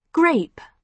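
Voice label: "grape", British English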